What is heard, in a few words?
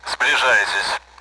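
Speech Sound Russian Common AWACS; hot; DCS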